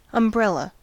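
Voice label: "umbrella", American English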